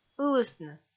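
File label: "ылыстны", Komi, female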